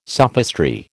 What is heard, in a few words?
"sophistry", American